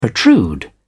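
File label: "protrude", British English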